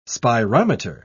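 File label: "spirometer", British English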